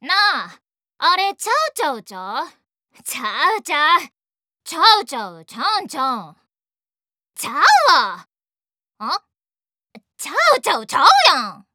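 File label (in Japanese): 関西弁, ボイスサンプル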